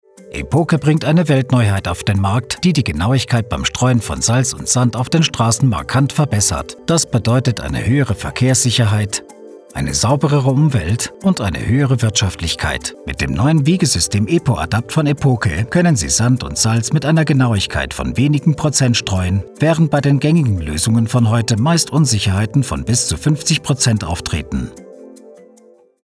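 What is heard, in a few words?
German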